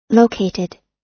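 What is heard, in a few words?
"located", US English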